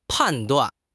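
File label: "pan4 duan4", Mandarin Chinese; HSK4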